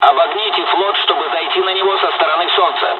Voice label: sun, dialogs russian; midway us; War Thunder